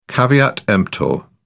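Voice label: "caveat emptor", British English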